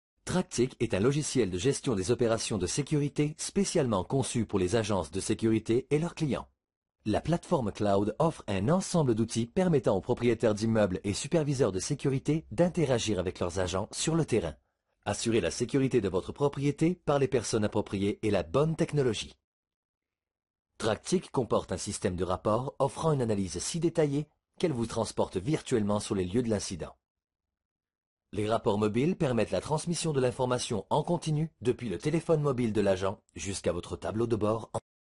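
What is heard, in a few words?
French, male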